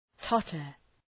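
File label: "totter", English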